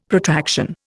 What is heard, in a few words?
"Protraction", Indian; Dictionary